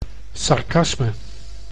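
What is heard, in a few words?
"sarcasme", Dutch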